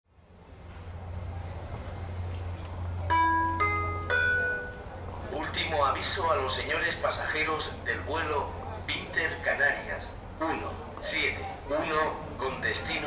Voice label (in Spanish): ultimo avisto, Flughafen Ansagen; Inter Canarias